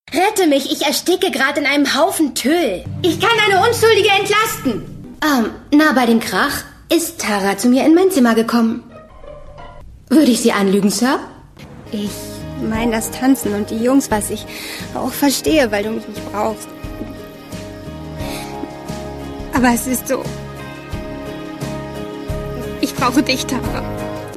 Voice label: Synchron Teenie; LOFT VOICES

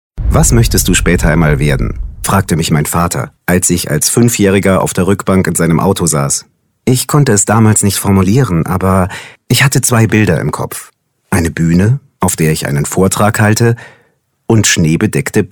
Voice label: Hoerbuch sonor maennlich voll warm freundlich serioes

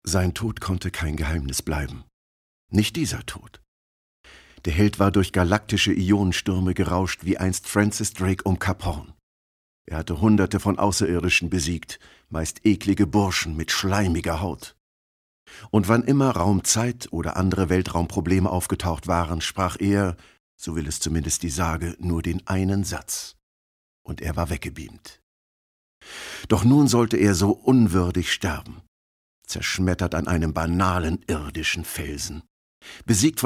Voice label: erzaehlung; LOFT VOICES

